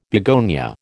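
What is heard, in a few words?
"begonia", American English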